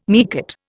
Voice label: "meerkat", Indian